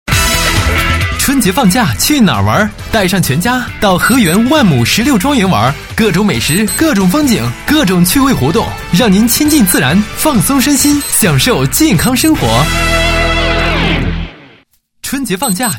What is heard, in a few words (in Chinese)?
男 春节广告 宣传广告, 配音; 和源万亩石榴庄园宣传广告